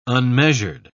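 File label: "unmeasured", British English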